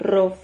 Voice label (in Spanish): "rrof", Silabas